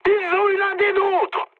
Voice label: aircraft destroyed mood high, speech fr

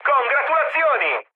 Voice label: player killed enemy mood med, speech it

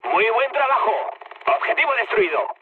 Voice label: bomb success mood med; War Thunder

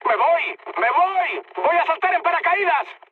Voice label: bailout, mood high, speech; War Thunder

